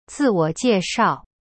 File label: "自我介绍" zi wo jie shao, chinese; cyberchinese